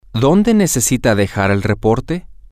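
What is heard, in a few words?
whereDoYouNeedToLeaveTheReport, Spanish, male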